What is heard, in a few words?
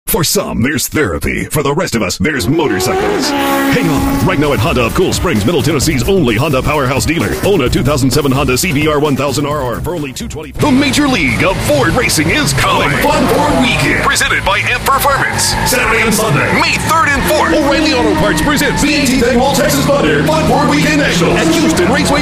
Hard Sell